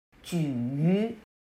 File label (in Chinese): "举于", chino